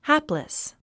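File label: "hapless", English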